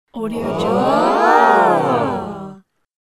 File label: astonished group shout phrase